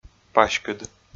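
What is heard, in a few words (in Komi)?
"паськыд", Komi, male; audio dict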